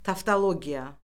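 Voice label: "tavtologiya", Azerbaijani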